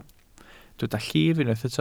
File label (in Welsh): "llif", Welsh